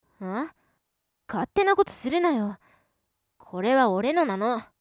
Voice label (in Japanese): daigakusei